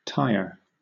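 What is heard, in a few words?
"tire", British English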